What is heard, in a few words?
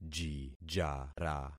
"jijara", concat syllables; Affixing experiment